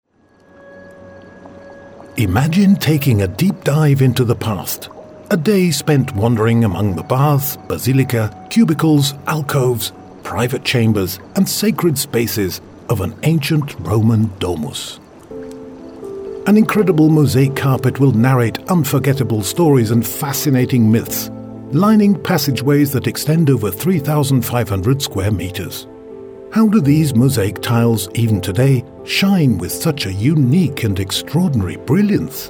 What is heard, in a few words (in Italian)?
audioguida inglese; villa romana del casale piazza armerina